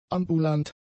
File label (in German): "ambulant", German; adjectives